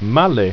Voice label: male, ido

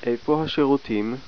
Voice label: "bathroom", Hebrew

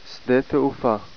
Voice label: "airport", Hebrew

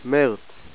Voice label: "March", Hebrew

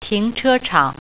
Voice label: "parking", Mandarin

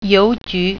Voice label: "post office", Mandarin

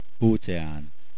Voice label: "Ocean", hungarian

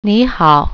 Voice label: "hello", Mandarin

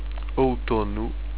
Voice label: Fall, europortuguese

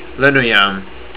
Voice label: AIRPORT, estonian

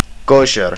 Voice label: kosher, estonian

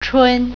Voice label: "spring", Mandarin

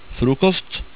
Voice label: "frokost", Norwegian

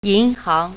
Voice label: "bank", Mandarin

